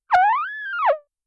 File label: cute voice